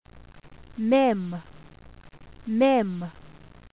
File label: mem, alfabeto, dizione; qabalah